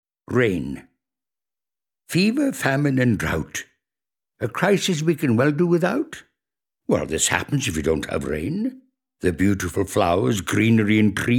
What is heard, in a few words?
poem read; Victor Spinetti